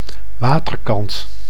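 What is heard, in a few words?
"waterkant", Dutch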